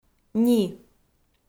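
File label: "ни", Komi, female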